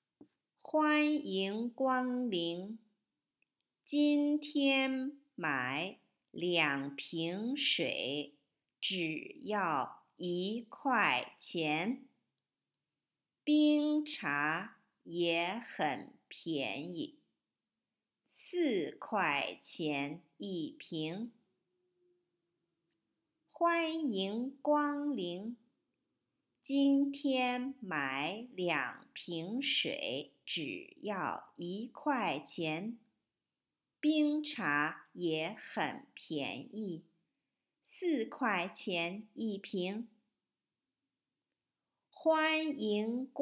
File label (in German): Chinesisch Hoerverstehen Durchsage